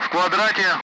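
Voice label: Speech, Russian, Target grid; Allied Flight; DCS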